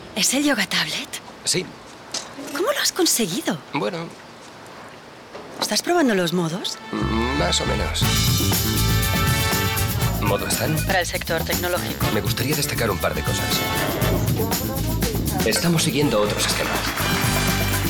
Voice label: commercial spanisch